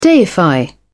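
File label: "deify", British English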